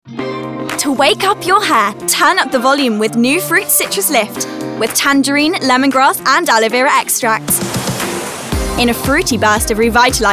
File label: Commercial